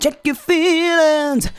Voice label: CHECK YOUR, FEMALE VOICE; Best Service; Hallelujah

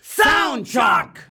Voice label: "soundshock", shouts; Best Service; Hyper Dance

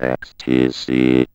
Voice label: ROBO VOX; Masterbits - Tekkno Trax

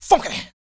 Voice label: male spoken; R&B Dance

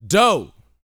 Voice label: BOAST F; Vocal Planet; R&B Dance

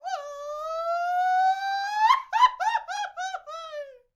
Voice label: MEXICO VOICE, MEXICAN; ILIO; Vocal Planet VOL-5

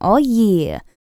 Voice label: FEM SPOKEN; ILIO; Vocal Planet VOL-4; R&B & Dance

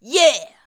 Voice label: "Yeah", shout; Best Service; Hyper Dance

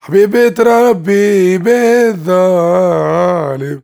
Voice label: MAN VOICE, Arabian Traditions

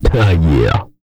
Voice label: aw yeah, Vocal, R&B Dance, hip-hop; TB HIP-HOP

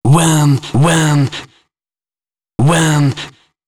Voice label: male d#130, spoken hooks

